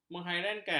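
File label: "menghairankan", Malay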